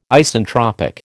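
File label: "Isentropic", American English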